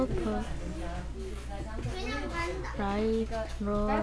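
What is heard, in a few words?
"low", spoken word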